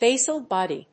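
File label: "Basal Body", English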